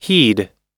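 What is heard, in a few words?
"heed", American English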